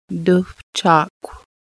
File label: toward the water, Lushootseed